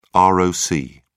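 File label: "roc", British English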